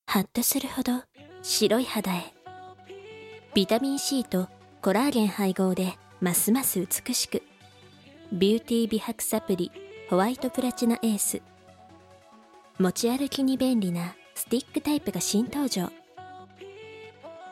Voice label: narration